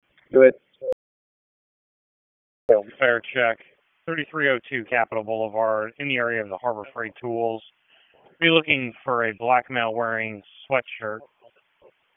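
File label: Raleigh Police Northeast District Dispatch; wake; p25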